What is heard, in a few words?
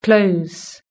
"close", british english